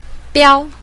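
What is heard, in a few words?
"biao", Mandarin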